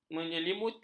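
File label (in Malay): "menyelimuti", Malay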